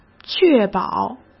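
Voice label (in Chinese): "确保", Mandarin Chinese; chinese-flashcards; hsk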